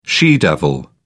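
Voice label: "she devil", British English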